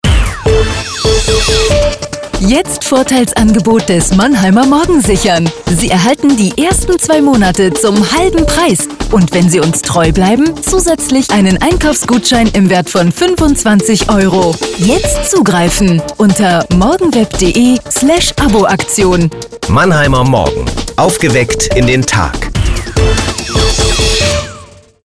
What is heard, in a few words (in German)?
Radio Spot; corporate sound; Mannheimer Morgen